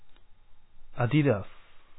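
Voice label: Japanese, male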